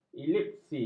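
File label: "elipsis", Malay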